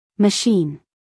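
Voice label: "machine", American English, female; everyone-can-use-english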